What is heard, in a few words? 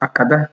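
"acada", Nigerian Pidgin, male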